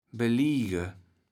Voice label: "beliege", kaevels platt

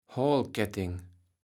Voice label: "Hoalketting", kaevels platt

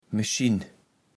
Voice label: "meschinn", kaevels platt

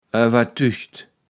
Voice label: "owertügt", kaevels platt

